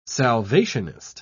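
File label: "salvationist", British English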